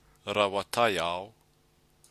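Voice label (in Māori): "rawa taiao", Māori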